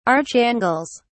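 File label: "archangels", British English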